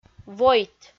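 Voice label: "войт", Komi, female; audio dict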